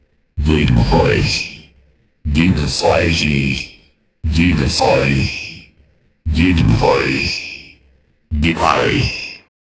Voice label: voice middle, authoritative